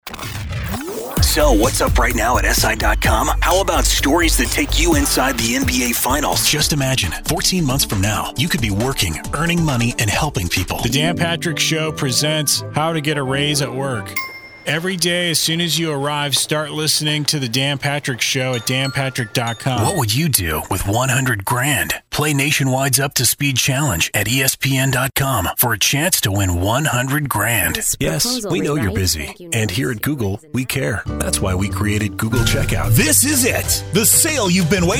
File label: COMMERCIALS